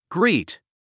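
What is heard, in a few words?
"greet", English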